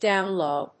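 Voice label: "down low", English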